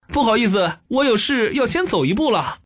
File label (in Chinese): man; guizhou